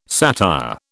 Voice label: "satire", British